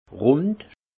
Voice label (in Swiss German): "rund", alsace; vin; plein